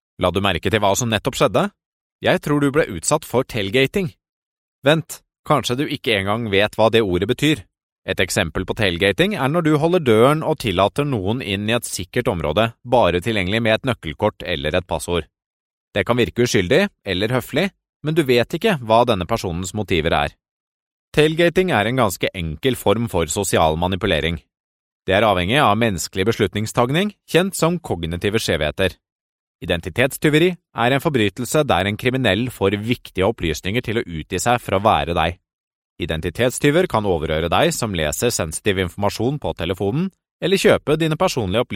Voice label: norwegian e-learning

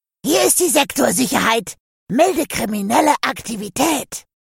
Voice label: german animation

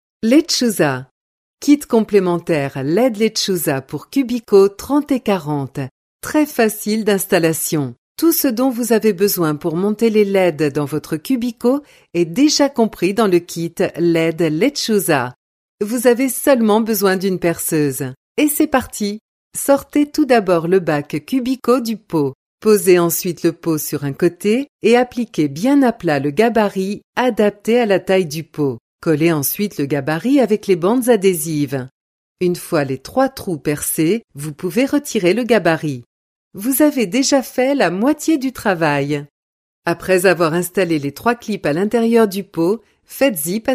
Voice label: french commercial, articulate

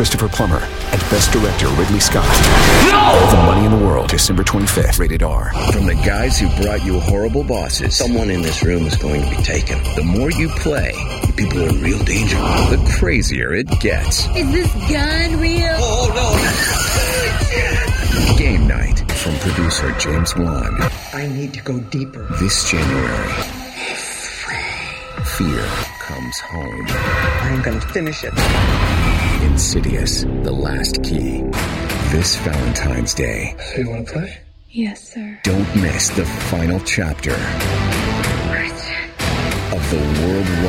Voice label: english american movie trailers

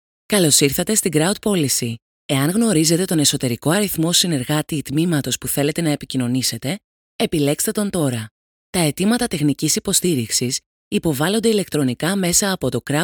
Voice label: greek ivr, greek voice over talent

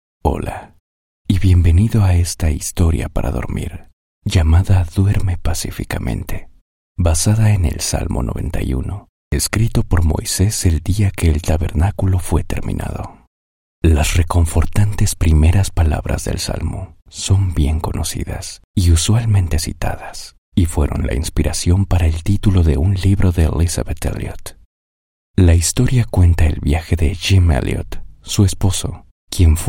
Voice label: spanish latin american narration

